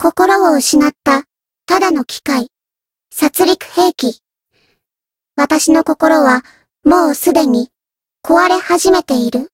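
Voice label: Voice story, Japanese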